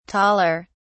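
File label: "taller", British English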